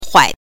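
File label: "huai", Mandarin Chinese pinyin, neutral tone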